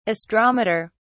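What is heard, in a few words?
"astrometer", British English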